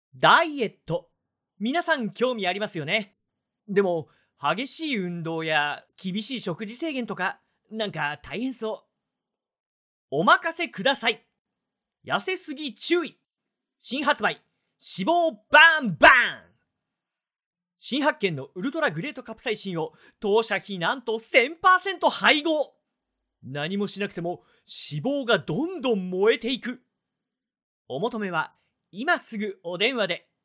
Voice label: male; Bright